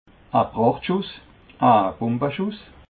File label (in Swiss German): "pracht schuss", alsace